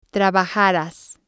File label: "trabajaras", Spanish